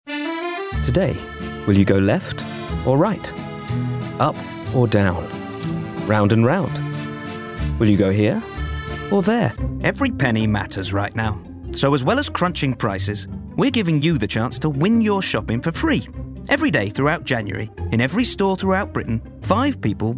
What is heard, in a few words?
Commercial Showreel